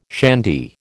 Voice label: "shandy", American